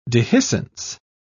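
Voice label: "dehiscence", British English, dictionary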